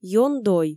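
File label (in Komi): ён дой, Komi, female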